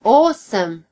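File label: awesome, Finnish; gcompris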